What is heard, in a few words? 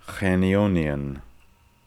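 "Khenionian", pronunciations; Fourth Way; Beelzebub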